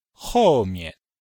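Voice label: "hou4mian5", Chinese; Cours-de-Chinois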